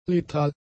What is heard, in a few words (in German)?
"letal", German; adjectives